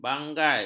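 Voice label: "bangai", Malay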